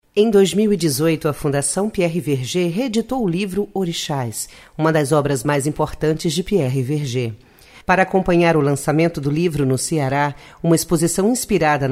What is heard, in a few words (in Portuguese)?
locucao